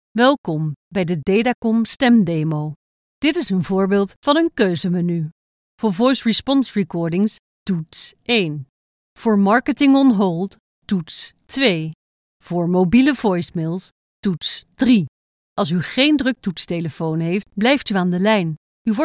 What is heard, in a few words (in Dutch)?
Voice over Nederlandse vrouw